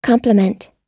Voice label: "complement", us

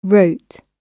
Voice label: wrote, gb

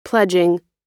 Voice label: "pledging", US

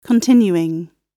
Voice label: continuing, gb

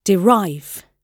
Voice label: "derive", gb

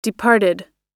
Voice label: "departed", us

